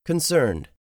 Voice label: "CONCERNED", English; 3000 words